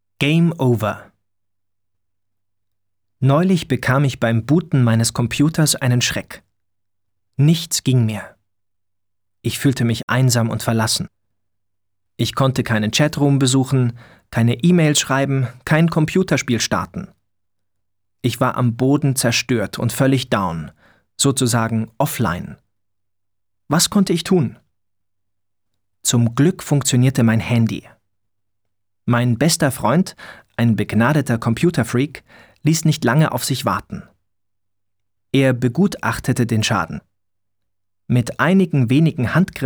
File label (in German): Diktate vorgelesen; Sicher in Deutsch Diktate 5-10; Klasse 7 8; Game over